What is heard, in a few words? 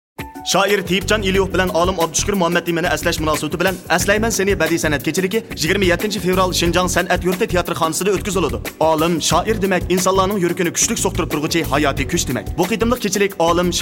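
Uyghur boy ad; weiyu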